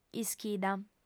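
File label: "ischida", female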